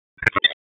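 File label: Sheriff's Office Dispatch; p25; wake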